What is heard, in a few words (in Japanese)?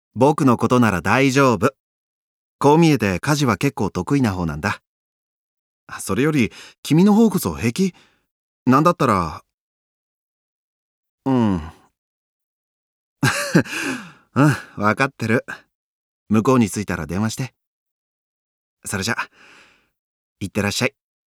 セリフ 20-30代-妻を心配する男性